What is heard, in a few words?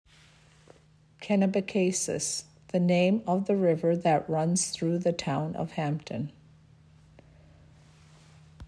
Kennebecasis English translation; hampton community centre